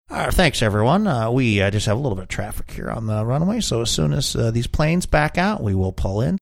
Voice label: saying thanks everyone we just had little bit of traffic here airplane pilot